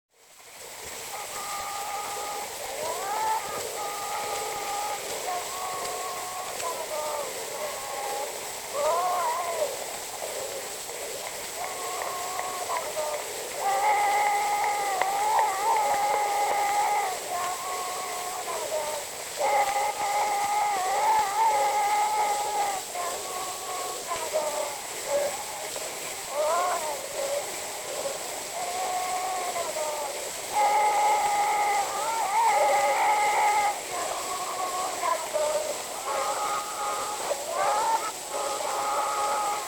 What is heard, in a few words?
Zulu Recordings; Father Franz Mayr